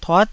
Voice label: "tuat9", Taiwanese

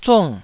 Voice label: "zung4", Taiwanese

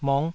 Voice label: "mok5", taiwanese

